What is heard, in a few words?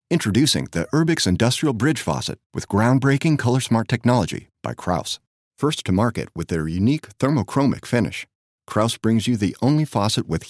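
Device explainer, voice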